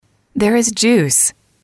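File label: "there is juice", English, female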